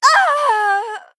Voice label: Female Night Elf Death